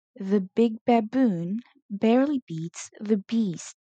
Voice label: Tongue Twister b